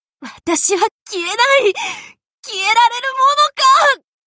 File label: voice incapacitated; jeanne darc alter